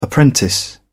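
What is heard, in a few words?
"apprentice", British English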